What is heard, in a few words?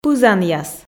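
"пызанъяс" Komi, female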